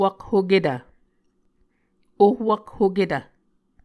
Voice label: "ohwakhoketv", Muscogee